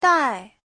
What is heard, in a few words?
"dai4", chinese; Giáo trình Hán ngữ